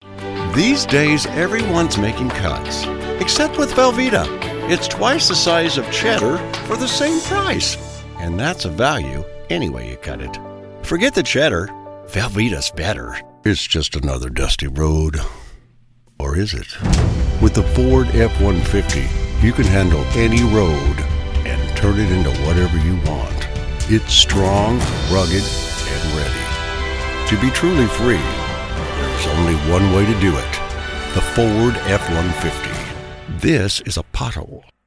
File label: COMMERCIAL